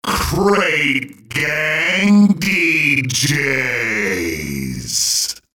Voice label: DJs drop slowed down